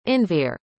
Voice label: "enver", arabic; indonesian boy names

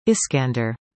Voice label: "Iskandar", english; indonesian boy names

